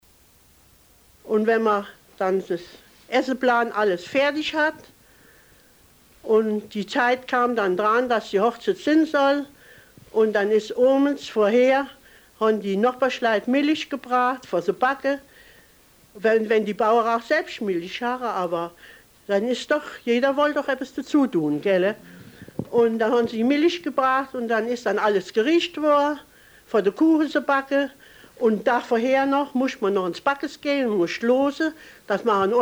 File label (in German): Rheinboellen, dialekt; sprache